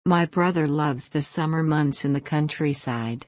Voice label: Tongue Twister Schwa Stressed

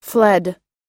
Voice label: "fled" us; flee（逃げる）の過去形・過去分詞

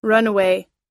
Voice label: "runaway", us; 逃亡した、家出した、逃げ出した

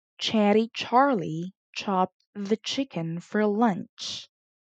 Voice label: Tongue Twister ch

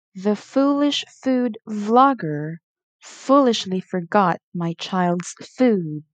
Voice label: Tongue Twister f

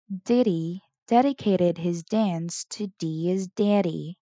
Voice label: Tongue Twister d

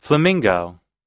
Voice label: "flamingo", us; 【鳥】 フラミンゴ，ベニヅル

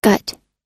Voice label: "gut", American English; gut /gʌ́t; 消化管、腸

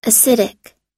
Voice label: "acidic", US; əsídik; 酸性の; schwa unstressed; Medial 中央部に該当の発音有り

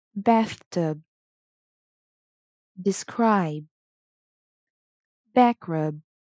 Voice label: Two Syl Final b

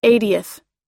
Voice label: "eightieth", us

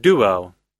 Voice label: "duo", us; 二重奏曲、(芸人の)二人組

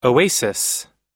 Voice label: "oasis", us; オアシス、憩いの場所

